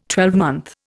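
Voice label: "twelvemonth", Indian English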